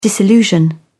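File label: "disillusion", British English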